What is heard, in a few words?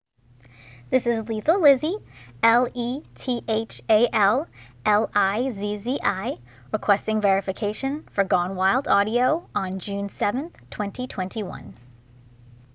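Female Verification Request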